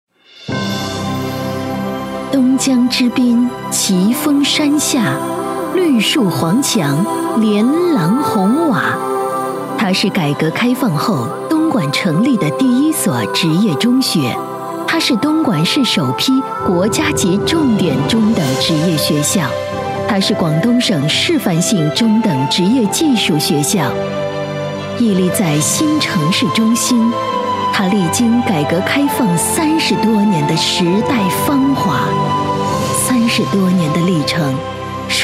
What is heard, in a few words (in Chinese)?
专题 学校 学校宣传 温柔, 女